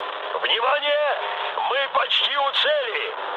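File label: Russian near waypoint mood high, speech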